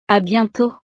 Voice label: "à bientôt", francés